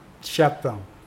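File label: "answer", verb, Mokilese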